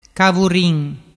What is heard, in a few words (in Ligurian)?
"cavurin", dialettu